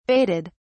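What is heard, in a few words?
"baited", British English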